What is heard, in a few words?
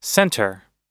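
"centre", American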